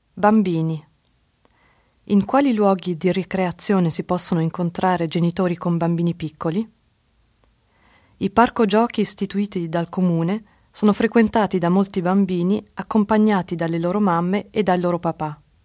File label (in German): italienisch; kleinkinder